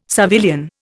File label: "civilian", Indian